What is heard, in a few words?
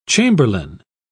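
"chamberlain", British English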